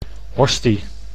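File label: "hostie", Dutch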